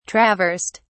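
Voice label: "traversed", British English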